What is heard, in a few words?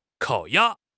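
"kao3 ya1", Mandarin Chinese; HSK; HSK4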